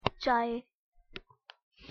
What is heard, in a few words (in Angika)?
"चाय" Angika; thee-in-andere-talen